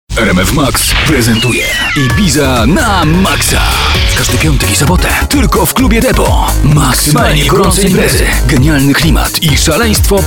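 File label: Polish, male, commercial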